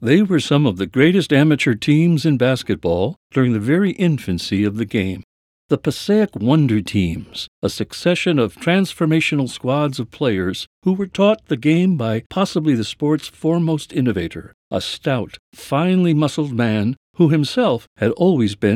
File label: Narration